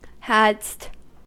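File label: "hadst" US English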